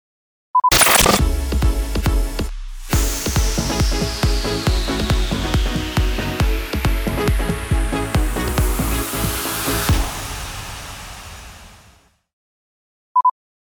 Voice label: SWEEPER MUSIC REJOIN

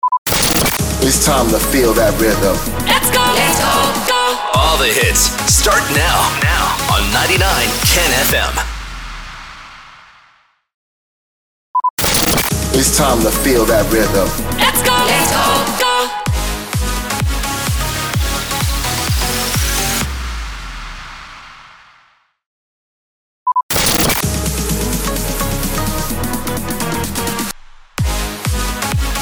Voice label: SWEEPER MUSIC REJOIN

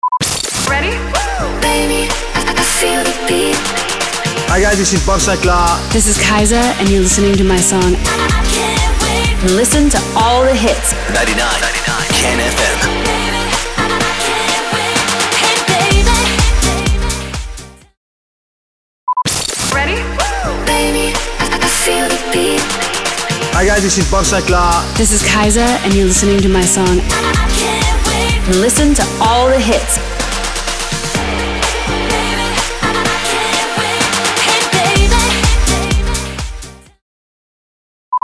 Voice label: SWEEPER, POWER INTRO, 126BPM

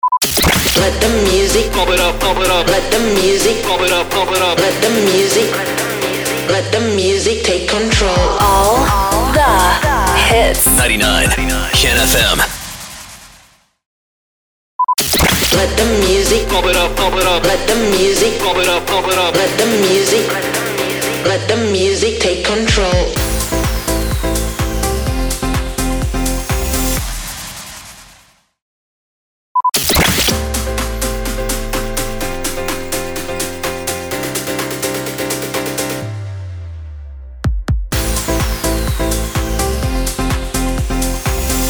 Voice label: SWEEPER; LET THE MUSIC TAKE CONTROL

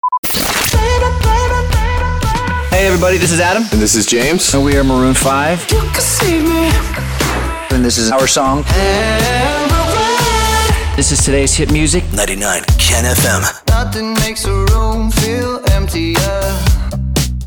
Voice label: SWEEPER, POWER INTRO, 121BPM

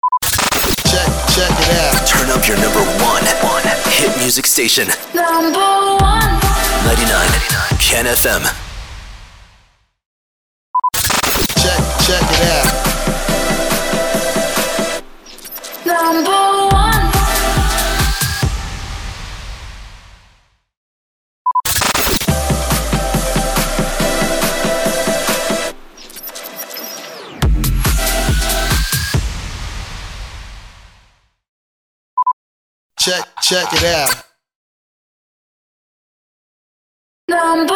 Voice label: SWEEPER; NUMBER ONE

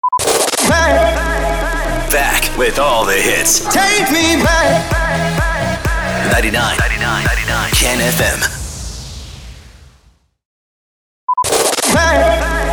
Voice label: SWEEPER MUSIC REJOIN

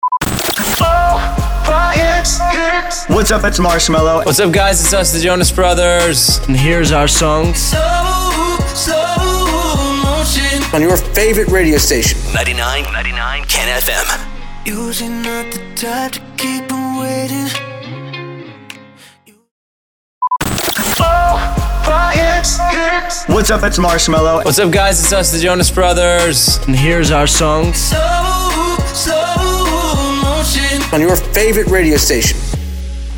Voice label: SWEEPER SLOW MOTION POWER INTRO 104 BPM

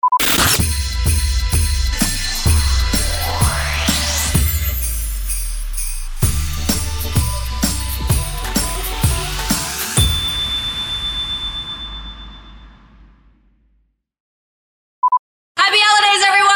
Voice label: SWEEPER CHRISTMAS

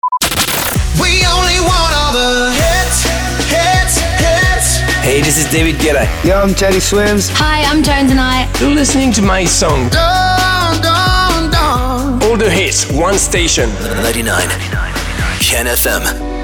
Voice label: SWEEPER, POWER INTRO, 131BPM

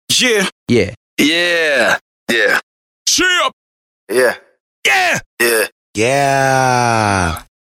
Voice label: "YEAH" VARIOUS

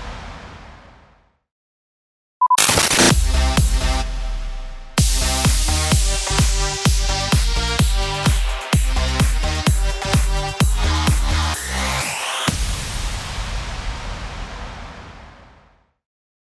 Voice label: SWEEPER MUSIC REJOIN NUMBER ONE STATION

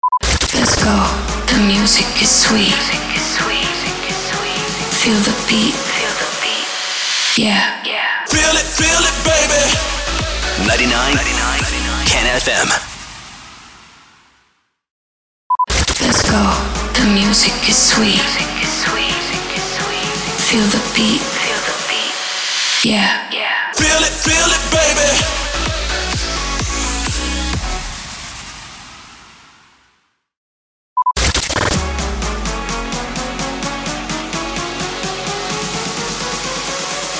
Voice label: SWEEPER

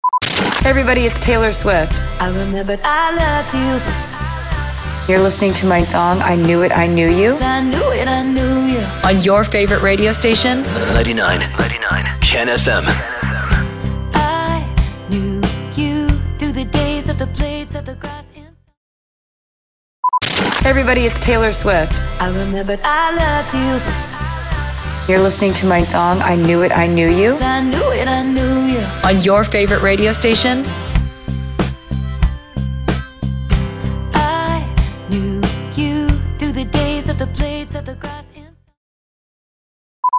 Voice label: SWEEPER, POWER INTRO, 93BPM

